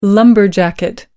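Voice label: "kiwi fruit", British English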